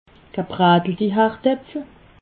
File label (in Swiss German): "gebrateldi", alsace